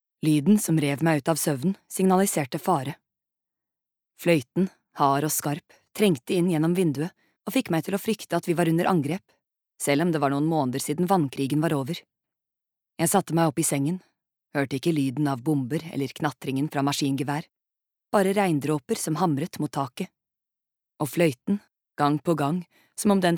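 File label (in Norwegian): lydbok, Norwegian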